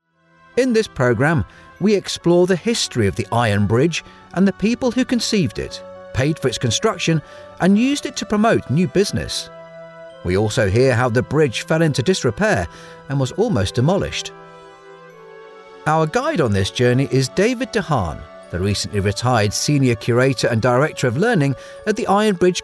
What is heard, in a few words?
Documentary